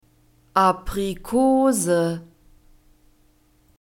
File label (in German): "Aprikose", German; fruits